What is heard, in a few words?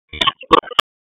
Raleigh Police North District Tac, p25; wake